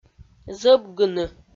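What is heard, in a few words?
"зӧбгыны", Komi, female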